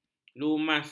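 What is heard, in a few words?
"lumas", Malay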